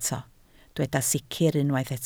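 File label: "sicr" Welsh, spoken word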